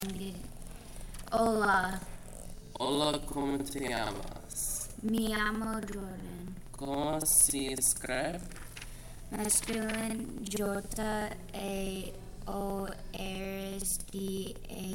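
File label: spanish dialog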